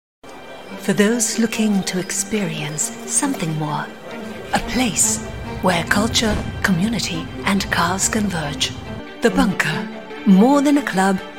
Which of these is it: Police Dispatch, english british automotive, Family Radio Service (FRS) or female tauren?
english british automotive